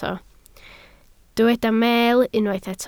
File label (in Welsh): "meel", Welsh